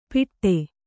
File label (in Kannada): "ಪಿಟ್ಟೆ", Kannada